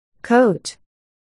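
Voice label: "coat", spoken word, articulation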